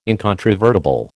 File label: "incontrovertible", American